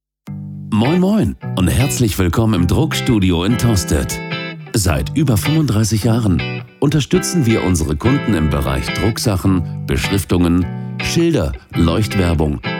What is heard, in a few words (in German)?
Sprecher male mit Musik